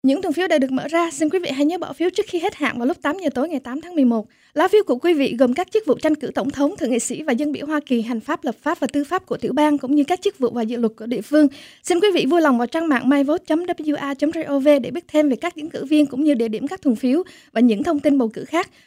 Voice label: vote deadline, viet